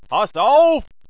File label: evil sci alert